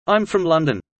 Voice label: fast; studying abroad